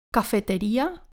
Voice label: "cafeteria", pron